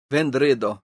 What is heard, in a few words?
"vendredo", esperanto